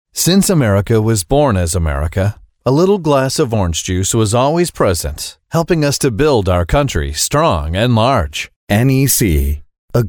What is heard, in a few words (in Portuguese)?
ingles masculino usa